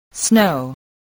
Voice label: "snow", English voice